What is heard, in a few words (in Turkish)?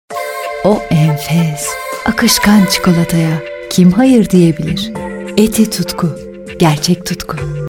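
reklam, Turkish; eti tutku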